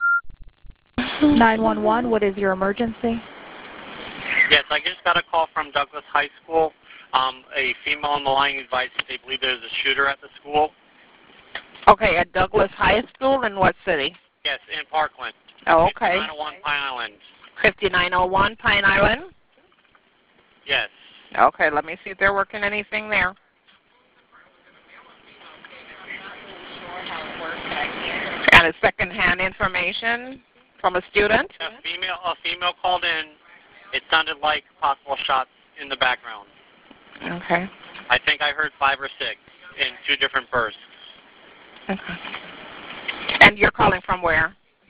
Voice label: 911 CALLS; Stoneman Douglas HS